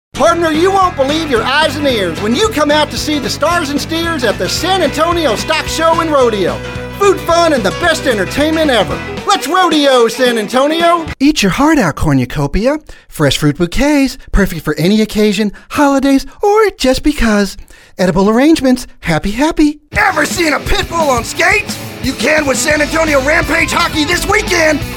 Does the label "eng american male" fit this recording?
Yes